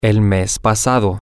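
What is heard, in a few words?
Spanish, male; last month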